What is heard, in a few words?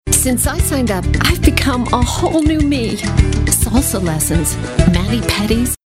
real people midlife girls next door friendly genuine; Online Coupon Service